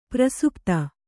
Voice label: "ಪ್ರಸುಪ್ತ", kannada